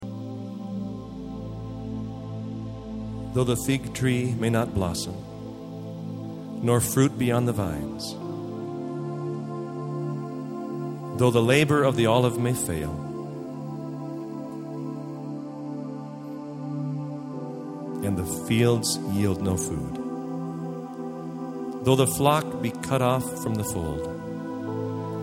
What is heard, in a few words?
Narration